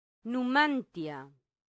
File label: "numantia", latin; santillana